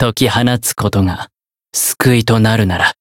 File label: variable chant jp; dunarith